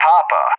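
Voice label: "Papa", English; JTAC; Alphabet; DCS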